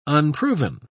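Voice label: "unproven", British English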